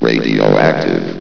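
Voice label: "radioactive", male synthesized announcer voice; blizzard; hlvox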